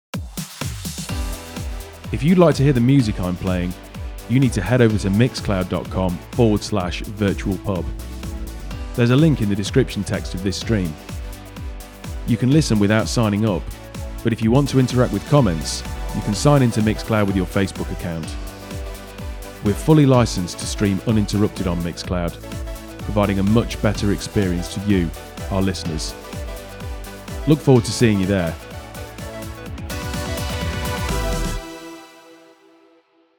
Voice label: Generic Fb Voiceover